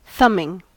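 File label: "thumbing", US English; pronunciations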